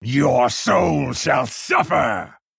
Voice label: Threaten

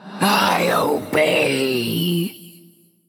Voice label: Female Undead Attack

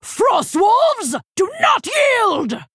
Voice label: Female Orc Play

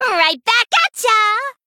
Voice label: Female Goblin Play

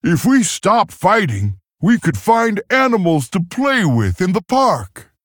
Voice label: Male Tauren Idle; Guff

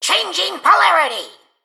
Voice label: Male Mechagnome Emote

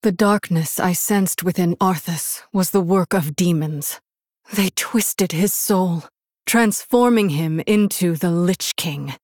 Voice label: Human Female; Jaina; Story Jaina Pre Mission4

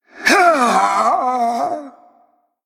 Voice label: Male Undead Death

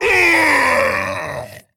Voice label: Male Abomination Death

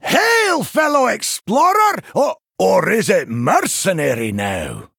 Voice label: Male Dwarf Bark

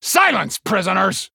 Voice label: Male Human Hero Power

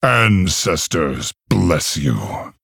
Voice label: Male Orc Thanks

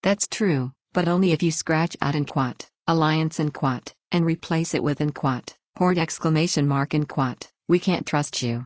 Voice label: Female Orc; Rokara; Scabbs Mission9

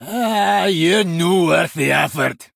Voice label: Male Dwarf Concede

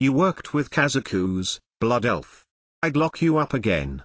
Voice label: Male Dwarf; Tavish; Scabbs

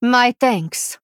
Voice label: Female Draenei Thanks

